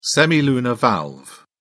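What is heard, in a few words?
"semilunar valve", English, British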